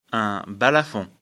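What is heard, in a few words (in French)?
"balafon", French; afrikalan; lecture fr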